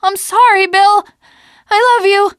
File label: teengirl, voice; c6 dlc3 bill dies